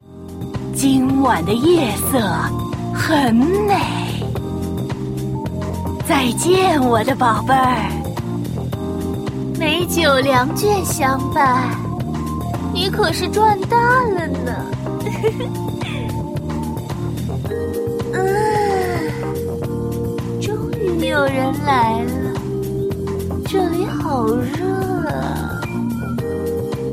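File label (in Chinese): yujie nüwang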